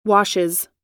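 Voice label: "washes", US English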